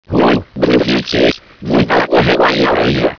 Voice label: gibberish